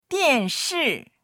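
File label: "dian4shi4", Chinese; 电视; テレビ; dictionary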